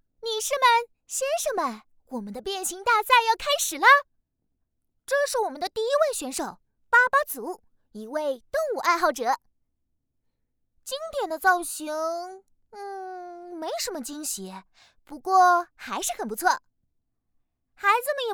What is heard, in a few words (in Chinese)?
绘本角色; 巴巴贝尔